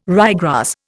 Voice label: "ryegrass", Indian

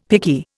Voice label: "picky", Indian; Dictionary

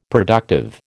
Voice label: "productive", American

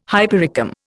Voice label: "hypericum", Indian

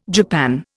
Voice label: "japan", Indian

